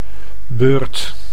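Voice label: "beurt", Dutch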